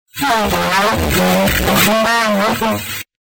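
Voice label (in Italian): "c'hai erasmo", Italian; C'hai Erasmo, un testimone, te!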